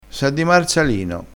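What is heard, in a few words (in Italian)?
"marsalino", dialettale crespinese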